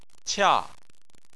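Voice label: "qia", Chinese, tone 4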